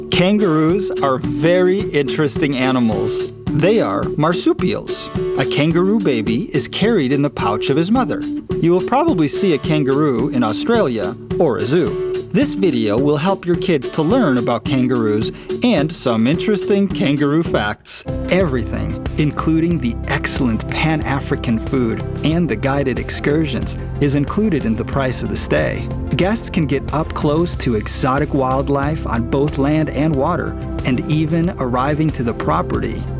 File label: Narration